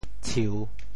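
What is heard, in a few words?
"tshiu3", Teochew; 潮州; 𤰉絮; 实力在伯仲之间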